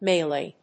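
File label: "melee", English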